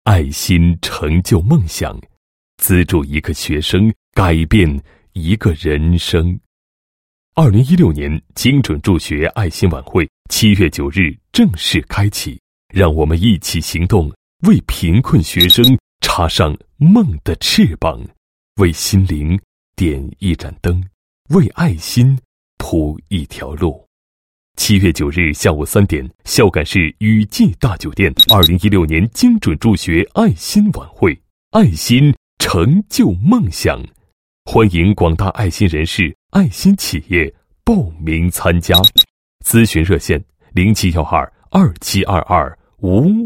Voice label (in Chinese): 男 晚会宣传 精准助学爱心晚会